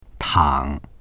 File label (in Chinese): "tang3", voices; 躺; 横になる、寝ころぶ